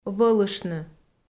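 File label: "вӧлыштны", Komi, female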